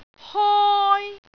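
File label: "hoi1", Cantonese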